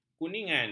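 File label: "kuningan", Malay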